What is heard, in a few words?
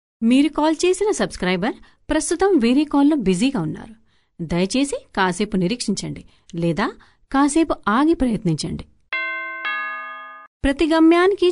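telugu female voice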